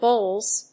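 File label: "bowls", English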